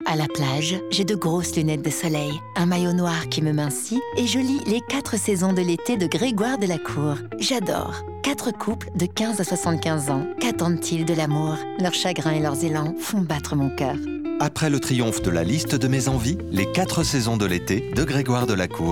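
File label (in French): Simple, comédienne voix off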